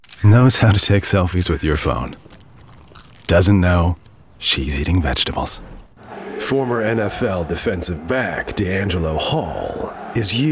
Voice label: Commercial VO Reel